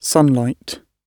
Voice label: "sunlight", gb